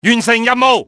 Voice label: target eliminate, cantonese male; XBOX; enemy